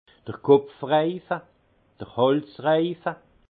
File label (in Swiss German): "kopfreife", alsace; vin; cercle de tête de cou